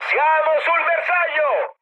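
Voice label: Italian near waypoint mood high, speech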